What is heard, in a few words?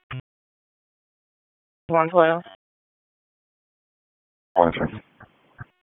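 Police Southwest District Dispatch, p25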